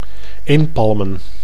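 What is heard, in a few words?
"inpalmen", Dutch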